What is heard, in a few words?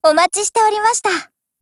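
"hello", Japanese; GF Alarm; PP2000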